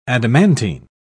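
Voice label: "adamantine", English, British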